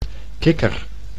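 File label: "kikker", Dutch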